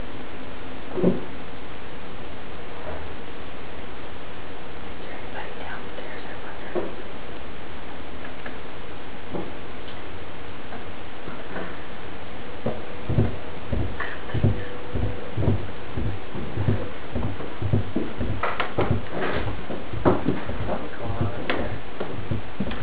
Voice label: living room hear male voice